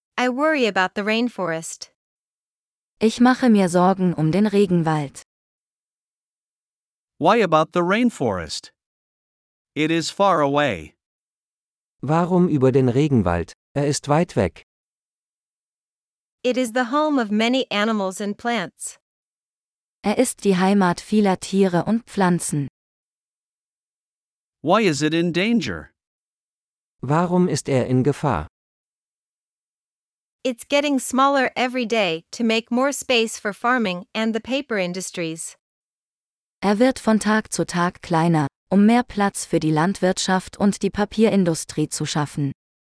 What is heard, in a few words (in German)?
Dialog mit Uebersetzungen; Regenwald; nachhaltigkeit-klimawandel